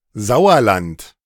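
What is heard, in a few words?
"Sauerland", German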